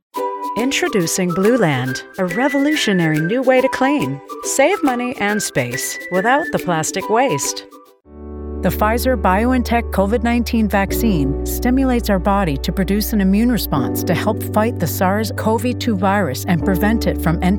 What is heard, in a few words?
CONVERSATIONAL NATURAL GIRL NEXT DOOR